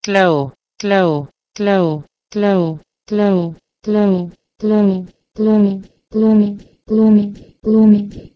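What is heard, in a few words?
Proto-Indo-European ghleu to Bosnian glumiti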